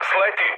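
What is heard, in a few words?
Serbian bailout mood med, speech; War Thunder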